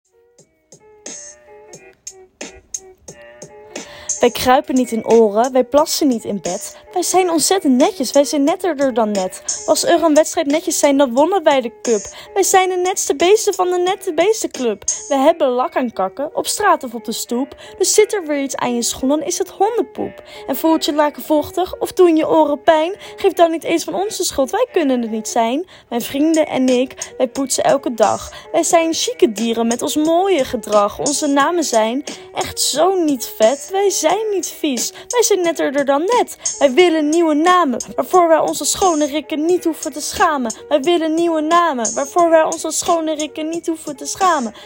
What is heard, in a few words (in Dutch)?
Rap